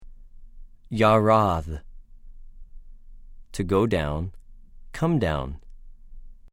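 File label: "יָרַד", Hebrew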